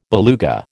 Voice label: "beluga", American